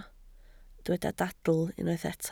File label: "dadl", welsh